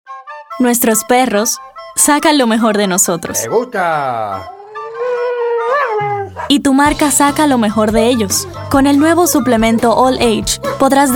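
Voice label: Latin American Spanish Commercial; voice demos; GoLocalise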